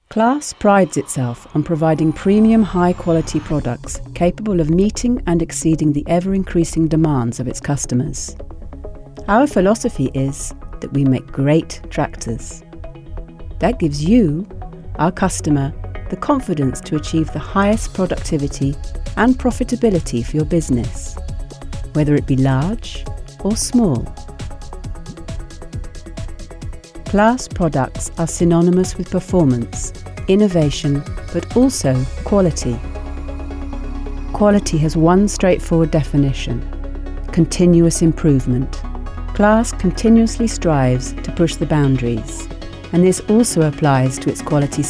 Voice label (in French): instit, voix off; institutionnel; Enregistrements dans la cabine speak